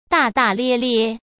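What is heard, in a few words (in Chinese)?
"大大咧咧", Mandarin Chinese; hsk; chinese-flashcards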